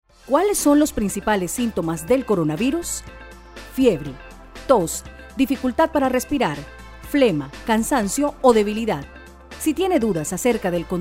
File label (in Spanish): COVID SINTOMAS CON MUSICA; COVID SINTOMAS SOLO GOBERNACION